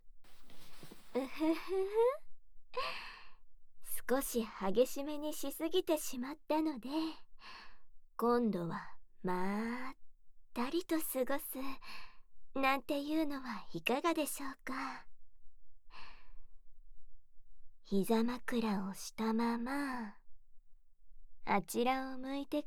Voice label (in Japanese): 吐息たっぷりカウントダウン（囁きブースト R-18手コキ版 効果音なし）; 隠れ宿 夢見亭 乃々佳; 和風癒しASMR